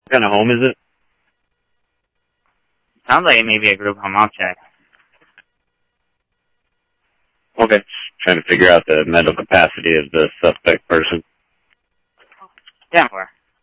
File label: Tempe Police, scanner